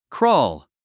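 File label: "crawl", English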